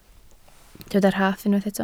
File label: "rhaff", Welsh